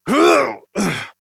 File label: Horse Stop Adult; Duviri